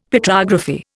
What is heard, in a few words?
"Petrography", Indian; Dictionary